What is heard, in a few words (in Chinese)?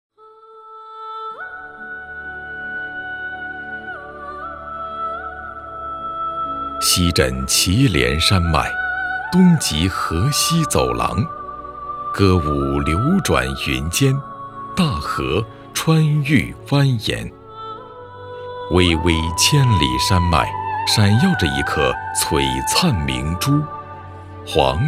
男 城市纪录片 历史感 沉稳讲述